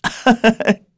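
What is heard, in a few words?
vox Happy, Korean; Lucias; kingsraid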